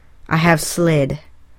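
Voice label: "I have slid", English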